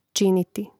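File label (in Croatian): "činiti", Hrvatski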